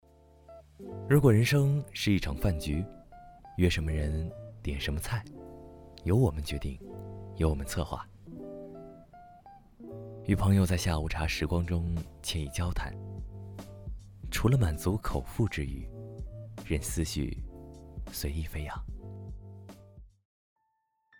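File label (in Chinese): 独白品质温暖; 非常静距离小片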